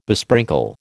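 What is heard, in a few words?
"besprinkle", American English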